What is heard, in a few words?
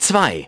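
"two", English; iOS 4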